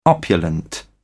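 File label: "opulent", British English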